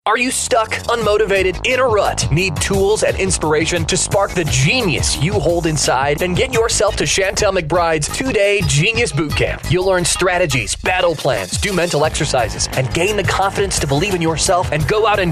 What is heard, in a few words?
radio spot; shantel's genius bootcamp; kosy 106.5